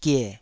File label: "gie3", Taiwanese syllable